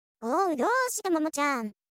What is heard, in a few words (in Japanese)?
セリフ スタンダード